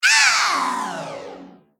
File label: Female Mech Death